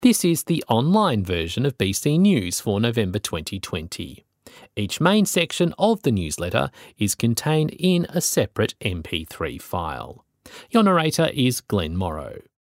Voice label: Online Opening Announcement; Blind Citizens News; November edition of Blind Citizens News for 2020